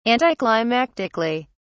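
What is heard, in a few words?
"anticlimactically", English, British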